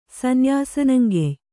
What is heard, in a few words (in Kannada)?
"ಸನ್ಯಾಸನಂಗೆಯ್", kannada